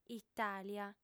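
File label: "itàlia", female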